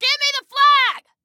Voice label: Flag Give Me, Female

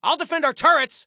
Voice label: Self Defend Turrets, Soldier Male; Soldier Male 01

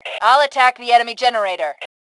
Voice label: Self Attack Generator, Female; T2 Female 03

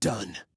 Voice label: Command Completed, Male; Male 01

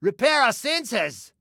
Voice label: Repair Sensors, Male

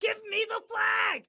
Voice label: flag give me, excited guy

